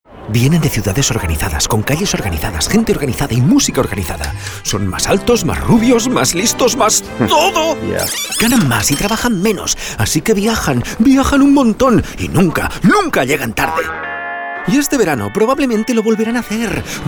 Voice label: male spanish travel comercial; ideasonora